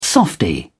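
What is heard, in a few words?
"softie", British English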